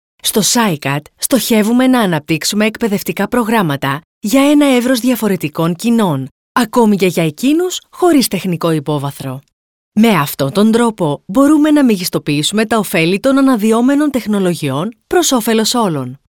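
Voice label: Greek, female, narration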